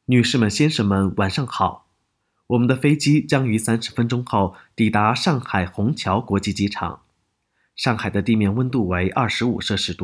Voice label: 航班干声

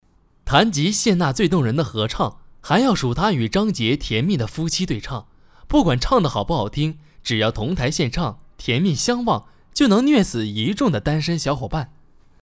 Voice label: 演绎类试音

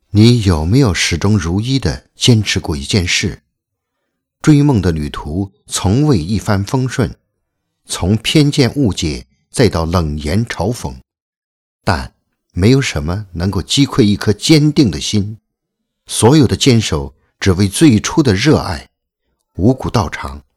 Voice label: 视频配音试音全面降噪

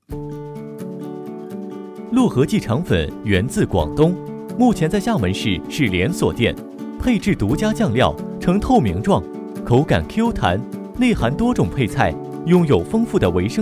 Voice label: 美食广告 年轻; 鹭合记

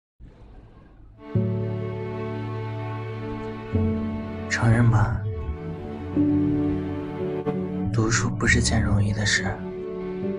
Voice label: 温润 迷离 情感 电台

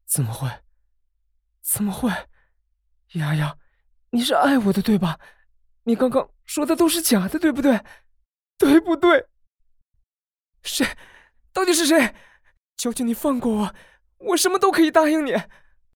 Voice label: 痛苦害怕

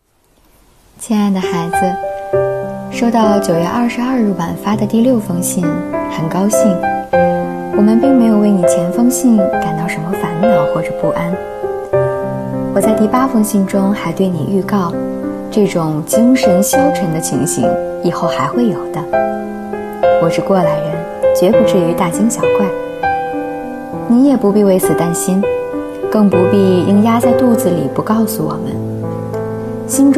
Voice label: 电台 节目; 傅雷家书

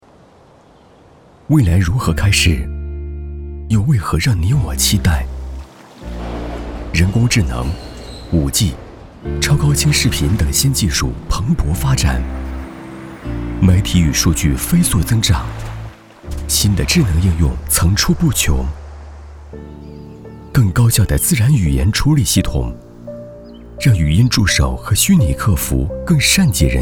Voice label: 宣传片科技 半导体; 淄博半导体

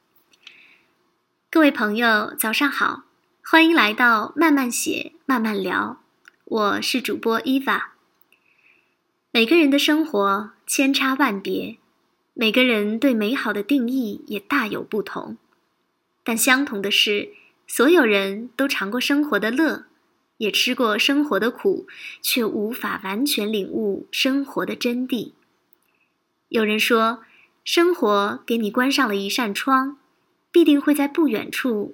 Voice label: 情感电台口播

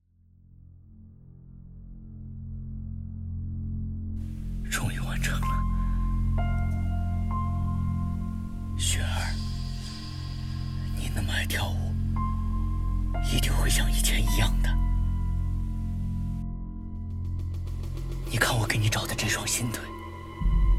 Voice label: 悬疑恐怖类

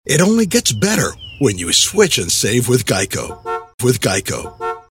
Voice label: 男美式英文广告

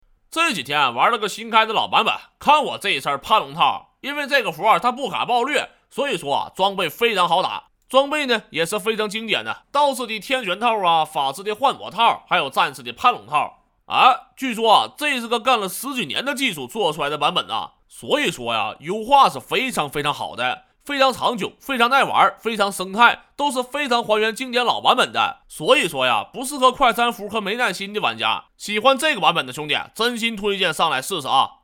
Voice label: 游戏憨憨东北话

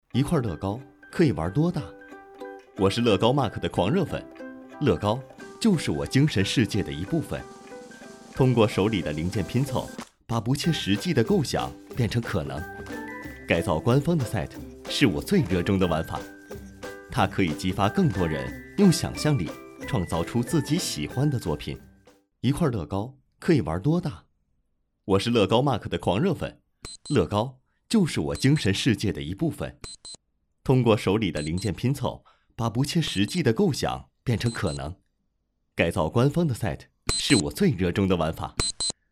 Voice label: 旁白 严肃; 麦乐宝

